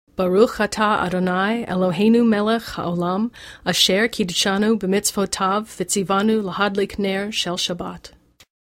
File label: Candle Lighting Spoken